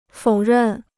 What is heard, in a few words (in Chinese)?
"否认", Chinese; to deny